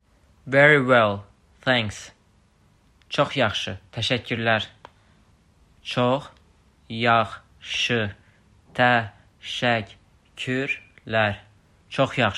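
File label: Very well thanks Çox yaxşı təşəkkürlər, azerbaijani; azerbaijani phrasebook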